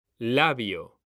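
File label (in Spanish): "LABIO", male; mekanta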